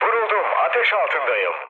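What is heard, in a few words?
Turkish on fire, mood low, speech; War Thunder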